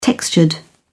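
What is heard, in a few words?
"textured", English, British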